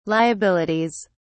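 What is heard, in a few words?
"liabilities", British English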